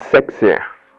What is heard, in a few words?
male fx vox, vocal house, g; PS-28 Vocal House Samples